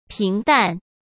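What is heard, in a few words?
"平淡", Mandarin Chinese; chinese-flashcards; hsk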